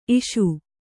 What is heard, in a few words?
"ಇಷ", Kannada